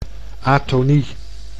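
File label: "atonie", Dutch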